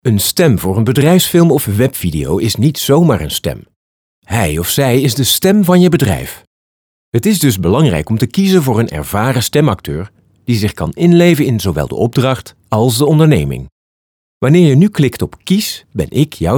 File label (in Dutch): BEDRIJFSFILM